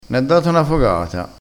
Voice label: "fogata", crespinese

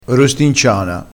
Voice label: "rostinciana", crespinese

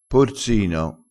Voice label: "porsino", crespinese

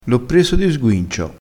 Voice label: "di sguincio", vocabolario dialettale

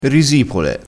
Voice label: "risipole", crespinese

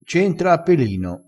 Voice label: "a pelino", Crespinese

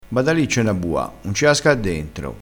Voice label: "bada", crespinese

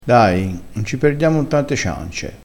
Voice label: "ciance", crespinese

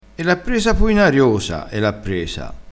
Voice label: "ariosa", crespinese

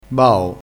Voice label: "bao", crespinese

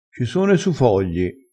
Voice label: "su", crespinese

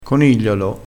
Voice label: "conigliolo", crespinese

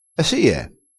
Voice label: "sie", crespinese

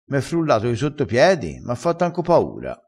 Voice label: "frulla", crespinese

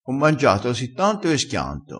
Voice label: "schianta", dialettale

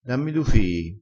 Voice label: "du", crespinese

